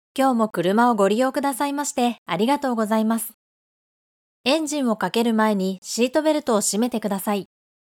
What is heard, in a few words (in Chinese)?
外籍日语女 轻快放松